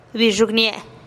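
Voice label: "bixugnie-e", Teotitlán del Valle Zapotec; mi una pie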